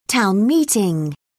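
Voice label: "town meeting", British English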